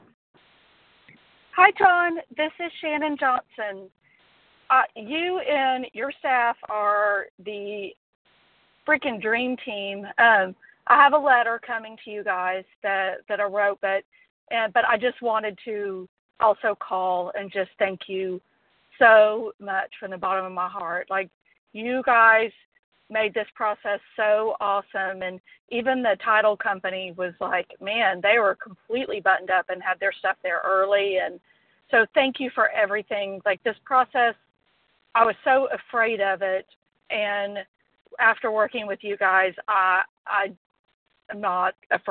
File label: client voicemail